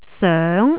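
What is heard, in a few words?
"soeng2", Cantonese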